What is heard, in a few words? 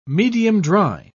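"medium-dry", English, British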